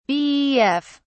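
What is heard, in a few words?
"bef", British English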